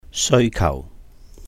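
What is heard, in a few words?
"sèui kàuh 需求", Cantonese; demand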